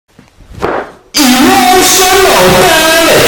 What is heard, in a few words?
EMOTIONAL DAMAGE BASS BOOSTED